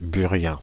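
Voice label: "burien", French, Paris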